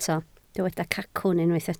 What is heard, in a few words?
"cacwn", Welsh